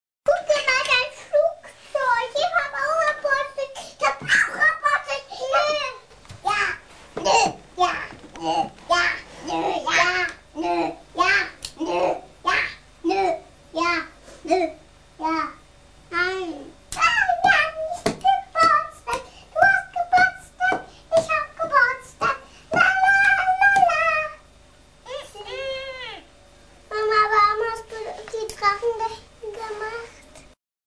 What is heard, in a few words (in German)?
Ja-nein-Spiel deutsch